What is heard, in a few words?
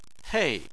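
"hei", Chinese, fourth tone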